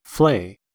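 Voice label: "flay", US English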